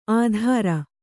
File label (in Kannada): "ಆಧಾರ", Kannada